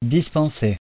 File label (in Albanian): "dispenser", frengjisht